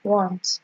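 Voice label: "want", Nigerian Pidgin, female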